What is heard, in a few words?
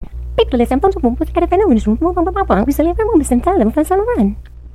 Gibberish